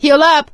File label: suggest health, survivor voice; Left 4 Dead 2; producer